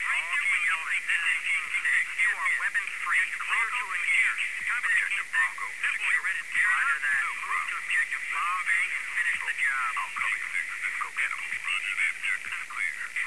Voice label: usmc chatter